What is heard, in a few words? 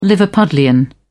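"liverpudlian", British English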